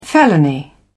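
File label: "felony", British English, dictionary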